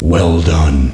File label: "well done", announce; mk2